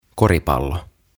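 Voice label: "Koripallo", "Basketball", Finnish, English; OTAVA KONSERNI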